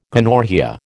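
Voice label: "gonorrhoea", American English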